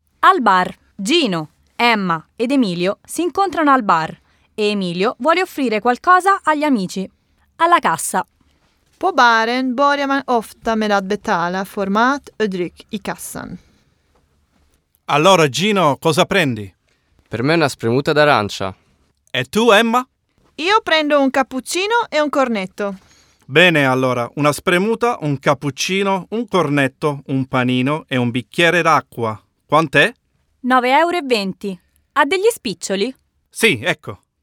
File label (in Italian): Dialog, Al bar